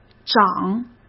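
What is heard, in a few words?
"长", Mandarin Chinese; hsk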